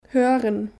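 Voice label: "hören", German; Kapitel 2; Alphabet erste Schritte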